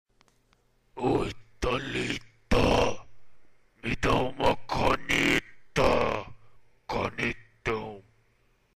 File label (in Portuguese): voz conhecida